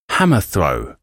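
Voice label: "hammer throw", English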